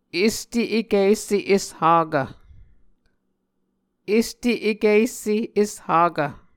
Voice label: "este ekv esse eshakv", Muscogee

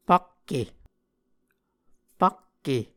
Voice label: "fakke", muscogee

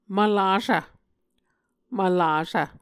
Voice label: "mvlasv", Muscogee

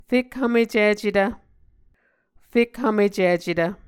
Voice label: "fekhvmecicetv", muscogee

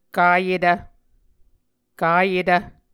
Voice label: "kayetv", Muscogee

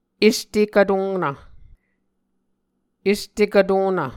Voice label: "est ekv tonv", Muscogee

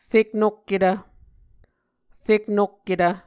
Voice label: "feknokketv", muscogee; Mvskoke Words with Repeated Consonants; A Dictionary of Creek/Muskogee; SIL International

